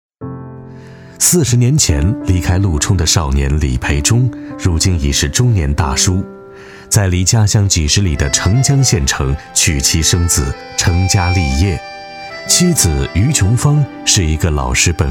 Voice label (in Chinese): 男 纪录片 大型垂钓人文纪录片 大气 磁性 成熟 知性; 钩尖上的中国